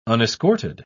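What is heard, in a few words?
"unescorted", British English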